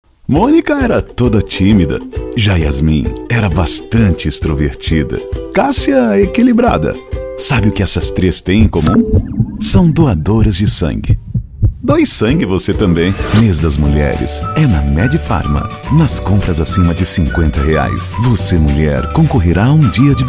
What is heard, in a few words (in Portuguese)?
locutor